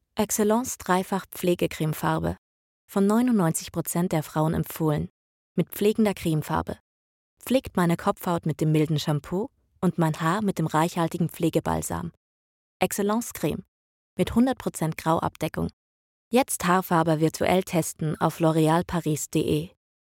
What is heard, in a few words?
female german commercial; austrian and german